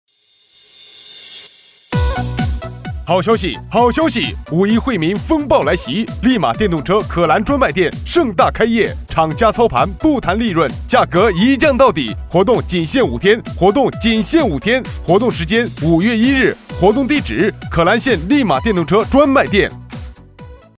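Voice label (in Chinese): 男 【促销】电动车混音; 御捷电动车混音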